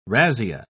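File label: "razzia", British English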